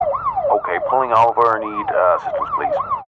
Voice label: emergency police radio male officer in car sirens pulling over assistance please